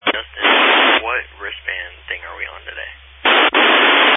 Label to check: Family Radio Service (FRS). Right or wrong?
Right